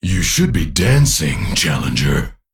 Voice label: Male Crystal Golem

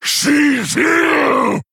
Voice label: Male Quillboar Attack

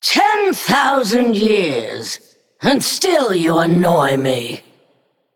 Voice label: Female Naga Start; Tyrande

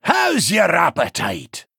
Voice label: Male Dwarf Greetings

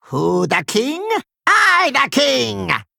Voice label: Male Kobold Play

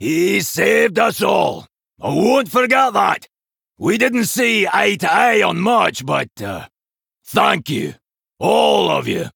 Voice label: Male Dwarf; Tavish InGame Turn

